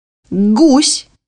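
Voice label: "goose", Russian; bukvar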